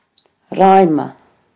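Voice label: "raima", Māori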